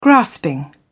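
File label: "grasping", British English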